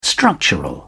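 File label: "structural", British English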